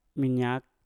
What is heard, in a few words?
"minyak", Mpur; lemak